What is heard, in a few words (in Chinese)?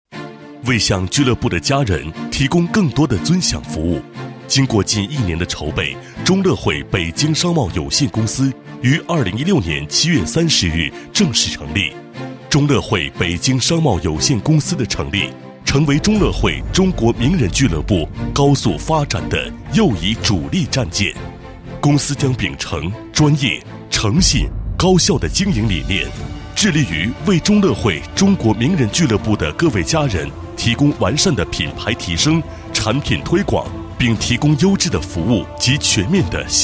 男 公司专题, 配音; 中乐汇（北京）商贸有限公司